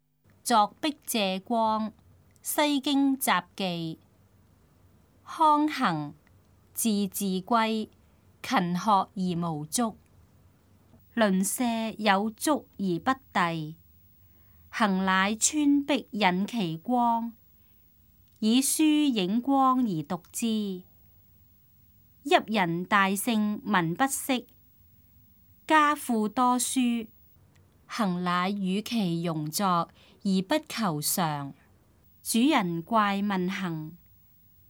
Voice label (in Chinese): cantonese; 鑿壁借光; 粵語